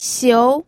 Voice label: "xiu2", pinyin